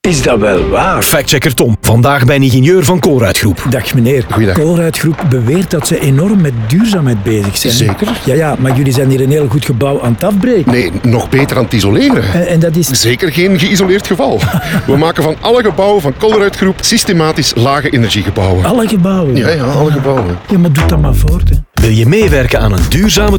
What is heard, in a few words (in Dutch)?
Radio; SNR Recrutering; Gebouwen